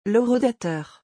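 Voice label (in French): "l'horodateur", French; vocabulaire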